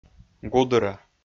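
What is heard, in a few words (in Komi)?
"гудыра", Komi, male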